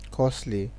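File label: "costly", Nigerian Pidgin, male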